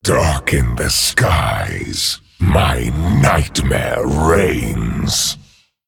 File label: Male Dragon